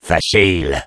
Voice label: Sniper positive vocalization, fr

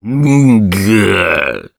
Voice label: Sniper negative vocalization ru

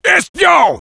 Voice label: cloaked spy, fr; Sniper

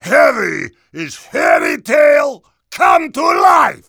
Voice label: Heavy fairy princess

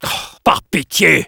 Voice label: negative vocalization, fr

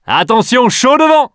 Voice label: Enemy Burned To Death, fr

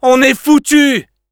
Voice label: negative vocalization, fr; Scout

